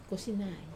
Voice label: "kosinai", glass, Wamesa